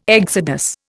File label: "exodus", Indian